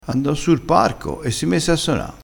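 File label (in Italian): "messe", dialettale crespinese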